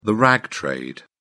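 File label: "rag trade", British English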